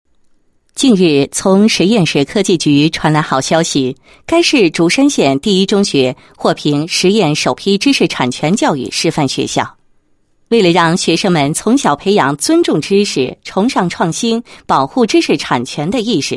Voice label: 女 新闻; 某学校获得首批知识产权教育示范学校

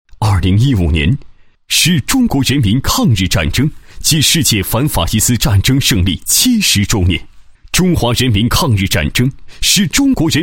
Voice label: 男 解说 世界反法西斯战争胜利70周年宣传解说

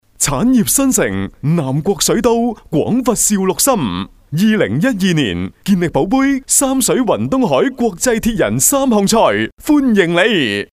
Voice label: 粤男, 粤; 铁人三项赛

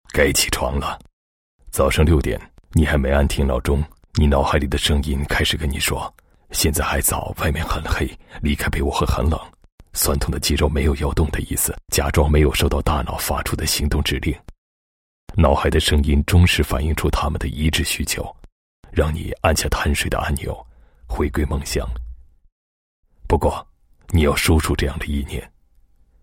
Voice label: 男 专题 起床了 外国励志, 国语男声配音

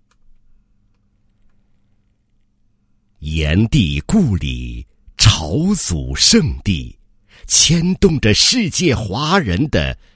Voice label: 男 城市地区介绍; 炎帝故里样音

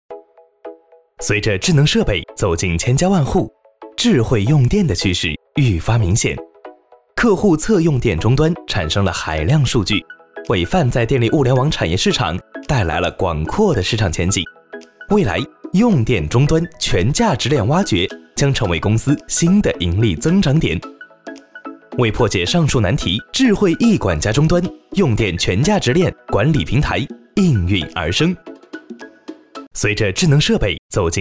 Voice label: 男 轻飞碟说; 智慧E管家

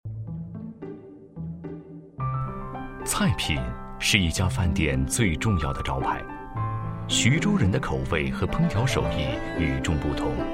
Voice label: 男 专题, 国语男声配音 磁性

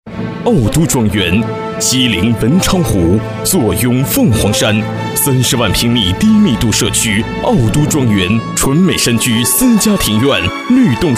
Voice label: 男 广告 庄园, 国语男声配音 浑厚大气; 奥都庄园

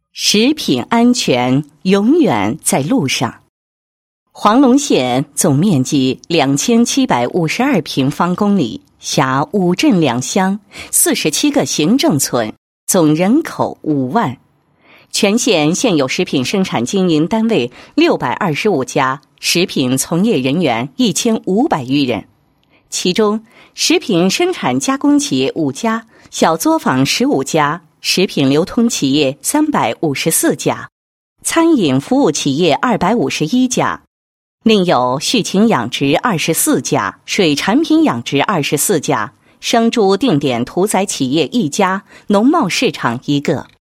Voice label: 女 专题; 某县食品安全永远在路上

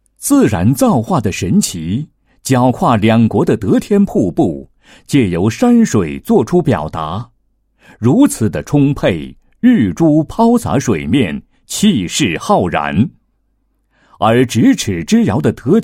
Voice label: 男 景区宣传, 配音; 德天老木棉景区

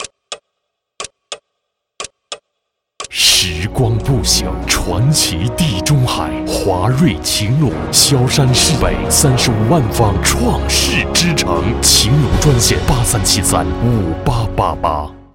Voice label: 男 广告 地产 奢华大气, 国语男声配音

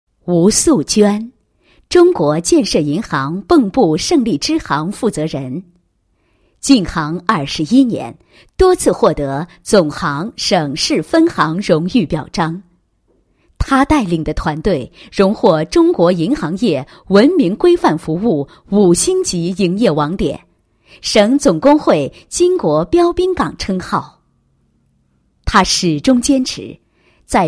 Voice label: 女 人物; 建设银好领导

